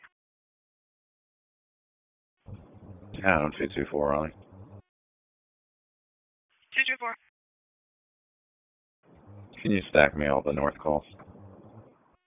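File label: Police Northeast District Dispatch, p25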